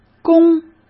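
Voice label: "弓", Mandarin Chinese; hsk; chinese-flashcards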